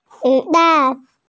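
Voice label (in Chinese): "zi dan", Mandarin Chinese, female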